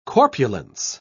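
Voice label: "corpulence", British English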